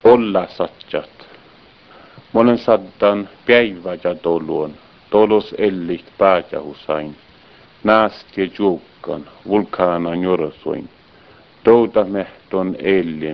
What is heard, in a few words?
saami poem